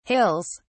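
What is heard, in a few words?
"hills", British English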